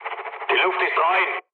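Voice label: German chat, speech; War Thunder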